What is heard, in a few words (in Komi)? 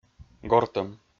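"гортӧм", Komi, male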